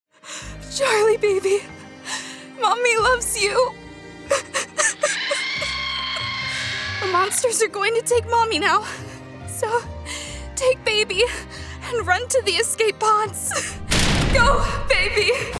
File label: US Character